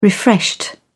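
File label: "refreshed", English, uk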